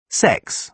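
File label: "sex", British English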